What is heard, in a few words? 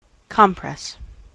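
"compress" noun, US English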